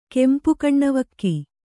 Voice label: "ಕೆಂಪುಕಣ್ಣವಕ್ಕಿ", Kannada

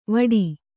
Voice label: "ವಡ", Kannada

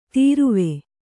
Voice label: "ತೊರವಿ", Kannada

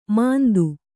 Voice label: "ಮಾಂದು", Kannada

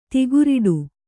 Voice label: "ತಿಗುರಿಡು", kannada

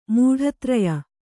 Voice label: "ಮೂಢತ್ರಯ", Kannada

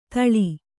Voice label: "ಥಾಲಿ", kannada

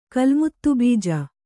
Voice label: "ಕಲ್ಮುತ್ತುಬೀಜ", Kannada

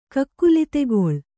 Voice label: "ಕಕ್ಕುಲಿತೆಗೊಳ್", Kannada; kakkulitegoḷ; to beg humbly for; to beseech.

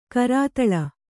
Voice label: "ಕರತಾಲ", Kannada

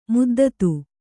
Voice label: "ಮದ್ದತ್ತು", kannada

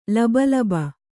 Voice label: "ಲಬೋಲಬೋ", Kannada

